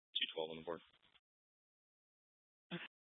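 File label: Sheriff Dispatch, Scanner audio recording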